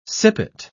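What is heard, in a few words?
"sippet", British English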